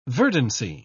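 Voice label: "verdancy", British English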